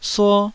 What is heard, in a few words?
"suo1", Taiwanese